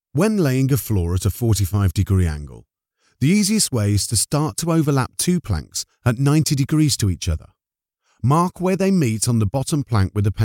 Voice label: e-learning